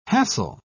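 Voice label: "hassel", British English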